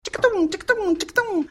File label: brazilian lady